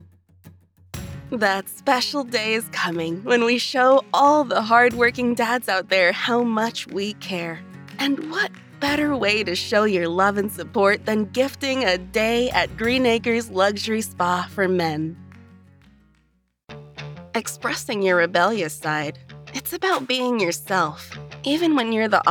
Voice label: Commercials, Warm Female Voice